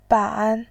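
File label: "ban", Mandarin Chinese, tone 3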